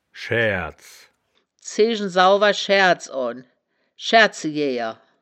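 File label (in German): "schaeaerds", wittlicher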